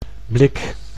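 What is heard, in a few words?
"blik", Dutch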